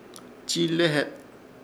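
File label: "Chiilehet", Chamacoco word, ablalar o tomar la palabra; Ishir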